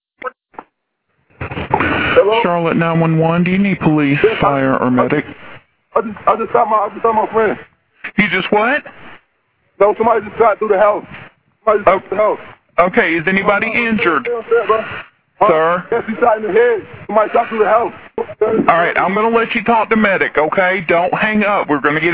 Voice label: 911 call; 911 Call Released In Case Of Teen Charged With Fatally Shooting Friend On Sunday; WCCB Charlotte